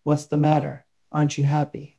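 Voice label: Voice Acting, Spanish, male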